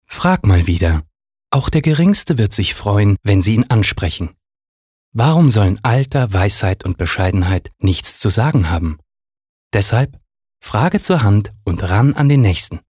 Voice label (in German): Werbung Sanft